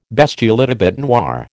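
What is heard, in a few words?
"bestiality bÈte noire", American English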